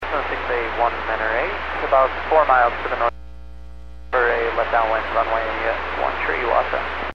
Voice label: Aviation 122.7; KSTE